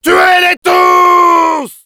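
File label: battle cry, French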